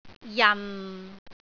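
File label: "jan6", Cantonese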